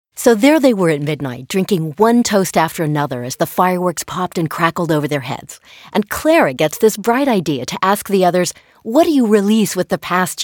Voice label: ad drama